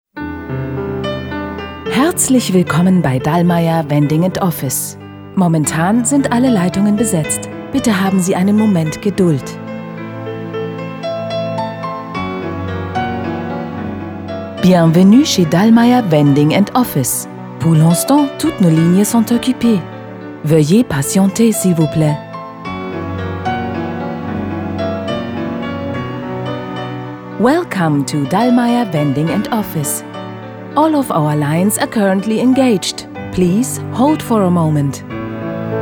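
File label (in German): Telefonansage Deutsch Französisch Englisch, frauen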